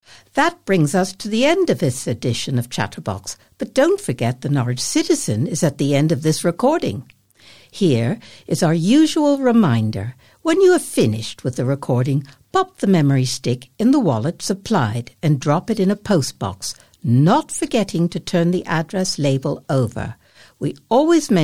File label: Closing Announcement